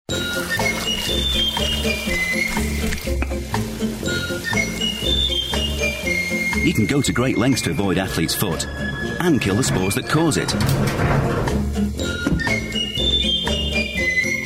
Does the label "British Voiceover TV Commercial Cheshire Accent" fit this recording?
Yes